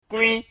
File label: "kwin", mina